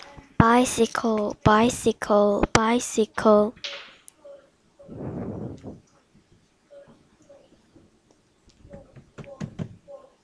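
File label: "bicycle", spoken word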